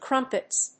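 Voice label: "crumpets", English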